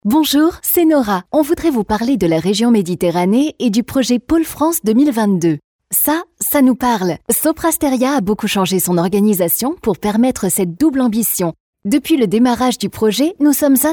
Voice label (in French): tres dynamique